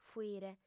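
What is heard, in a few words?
"fuire", Sardinian, female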